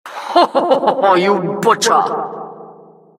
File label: announcer, vo, killstreak